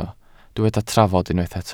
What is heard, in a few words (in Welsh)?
"trafod" Welsh